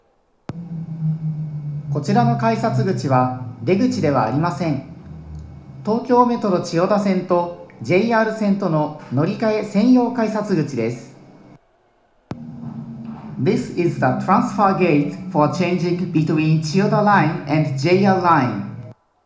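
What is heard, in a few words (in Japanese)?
乗り換え改札案内, 女声; 1番線 代々木上原・唐木田・伊勢原方面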